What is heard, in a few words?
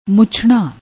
"muchnna", Punjabi